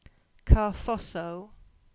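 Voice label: "Carvossa", Cornish; place-names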